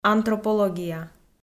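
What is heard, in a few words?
"антропология", Komi, female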